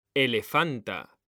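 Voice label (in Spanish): "elefanta", Spanish; mekanta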